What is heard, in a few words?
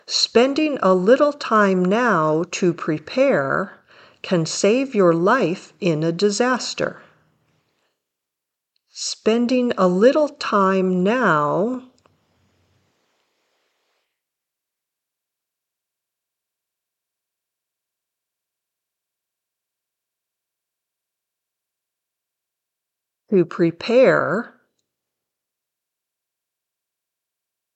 Dictation